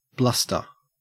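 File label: "bluster", English, Australian accent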